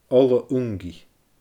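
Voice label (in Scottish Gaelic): "ola ungaidh", Scottish Gaelic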